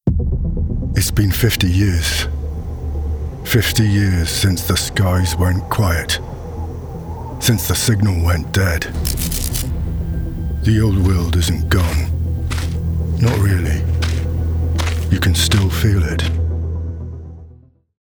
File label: Dystopian Hero / Grounded, Real, Dramatic; Character Animation Demo